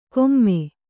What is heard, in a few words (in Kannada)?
"ಕೊಮ್ಮೆ", Kannada